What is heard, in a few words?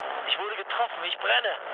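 German on fire mood low, speech; War Thunder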